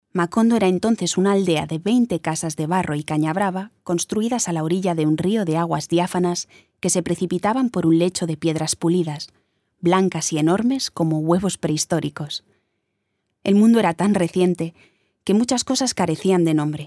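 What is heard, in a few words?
Spanish informative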